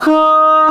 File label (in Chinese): 喝 D#4; 穿山甲